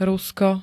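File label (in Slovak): "Rusko", Slovak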